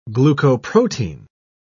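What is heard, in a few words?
"glucoprotein", English, British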